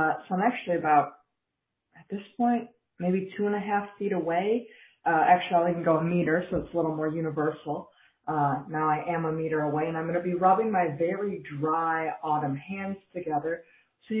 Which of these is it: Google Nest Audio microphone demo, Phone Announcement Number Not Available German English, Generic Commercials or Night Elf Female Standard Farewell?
Google Nest Audio microphone demo